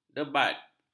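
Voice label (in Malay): "debat", Malay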